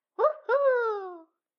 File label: Little Girl Saying Woo Hoo